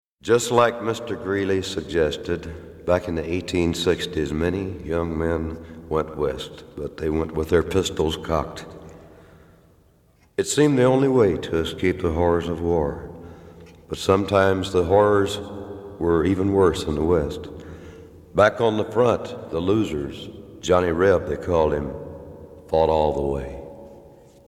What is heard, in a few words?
narration